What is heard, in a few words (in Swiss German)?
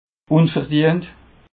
"unverdient", alsace